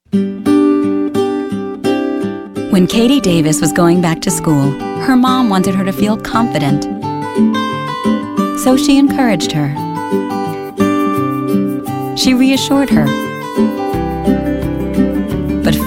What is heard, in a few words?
Commercial Voiceover; Internet Session, Los Angeles